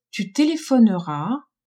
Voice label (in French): "tu telephoneras", french